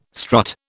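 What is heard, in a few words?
"strut", British English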